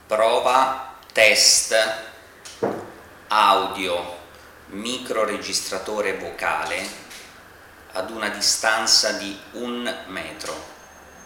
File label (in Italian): Microregistratore vocale Test registrazione a 1 mt